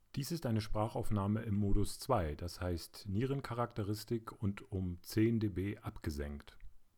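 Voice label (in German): Blue Snowball Modus 2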